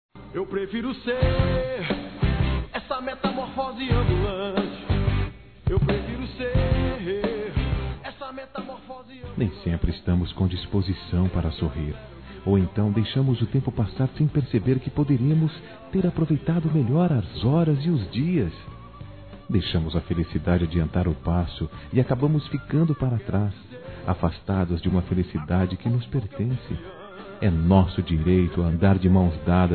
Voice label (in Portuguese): OTIMISMO M; Telemensagens; masc